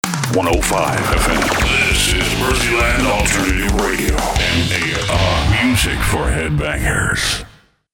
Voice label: MUSIC FOR HEADBANGERS, Jingles; M.A.R